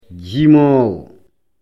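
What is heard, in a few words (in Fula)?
"jimoowo", Fula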